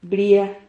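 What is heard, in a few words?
"bria", Spanish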